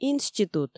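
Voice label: "институт", Komi, female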